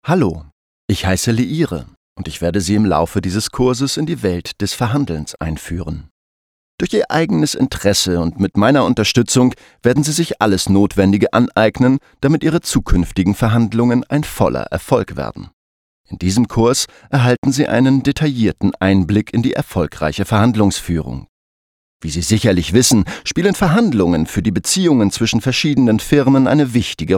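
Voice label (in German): elearning, deutsch männer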